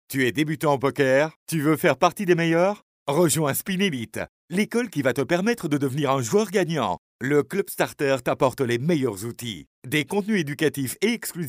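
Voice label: French France, male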